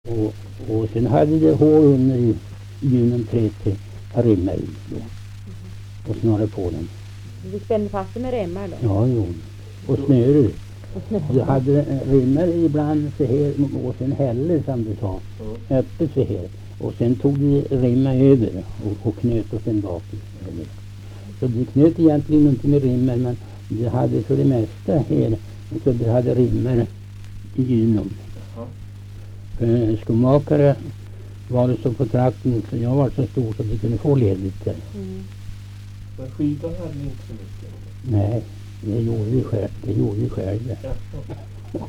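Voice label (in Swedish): Kumla Närke, dialekter; Folkminnen; Svenska sagor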